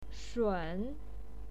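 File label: "shun", Mandarin Chinese, third tone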